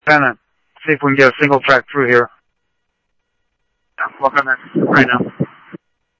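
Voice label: Light Rail Security Light Rail, scanner audio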